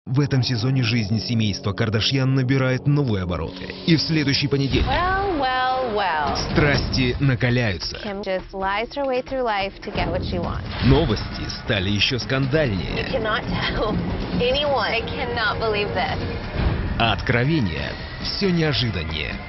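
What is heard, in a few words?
Russian promo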